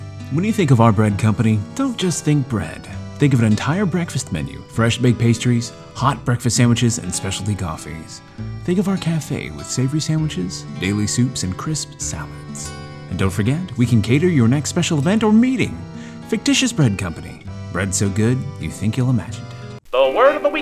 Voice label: No Slate Commercial